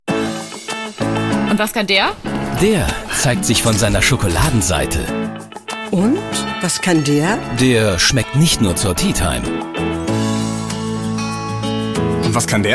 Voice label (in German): werbung; Bauer